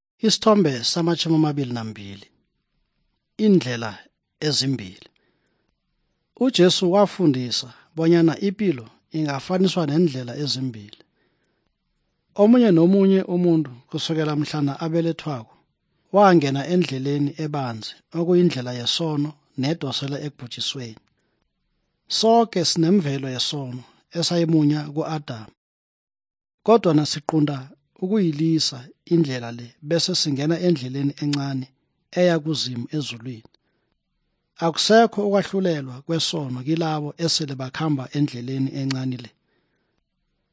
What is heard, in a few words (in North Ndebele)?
good news ndebele southern, drama